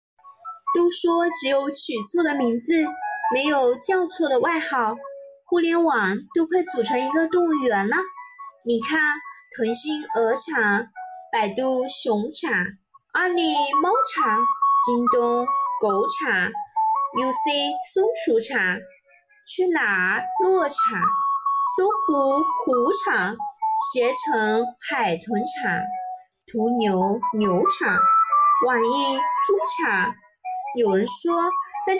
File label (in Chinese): 短视频活泼可爱配音